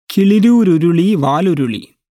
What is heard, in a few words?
Malayalam Recordings